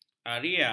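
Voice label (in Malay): "aria", Malay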